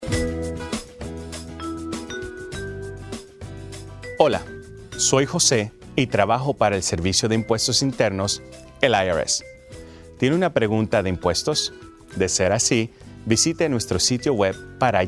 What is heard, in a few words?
Help Yourself Spanish